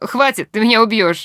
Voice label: no weapon, woman, talk; Stalker Anomaly; characters voice human use